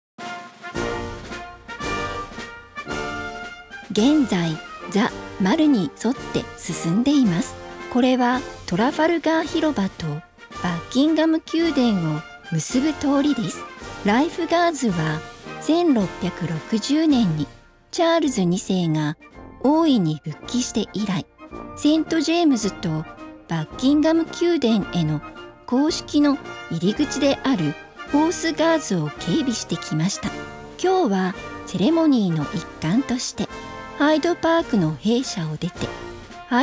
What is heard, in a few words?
Japanese; Changing of the Guard